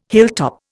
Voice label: "hilltop", Indian